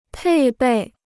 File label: "配备", Chinese